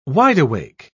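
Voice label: "wide-awake", British English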